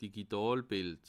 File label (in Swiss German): "digitalbild", alsace